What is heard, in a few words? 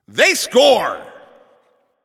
Goal Scored, Announcer